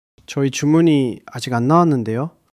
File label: sadness